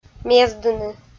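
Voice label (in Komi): "мездыны", Komi, female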